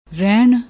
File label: "rehn", Punjabi; ਰਹਿਣ; رہن; mortgage